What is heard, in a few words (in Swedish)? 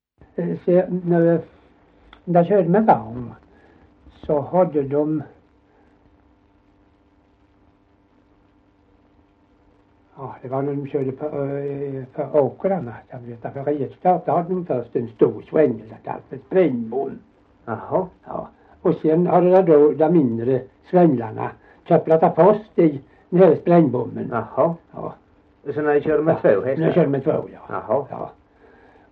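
Gällared Halland, dialekter; Folkminnen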